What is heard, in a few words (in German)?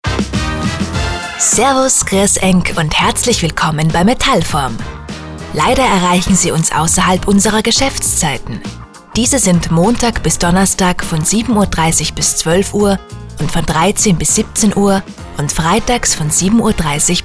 Nachtansage; Metallform; telefonansagen sonstige